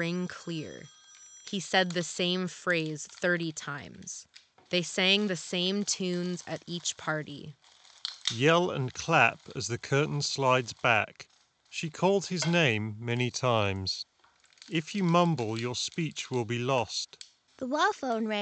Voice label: SteelSeries Arctis Nova 1 Office microphone sample